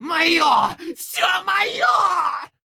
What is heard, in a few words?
Male Gnome Play; Hearthstone; датамайн обновления 5.0